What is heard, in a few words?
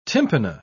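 "tympana", English, British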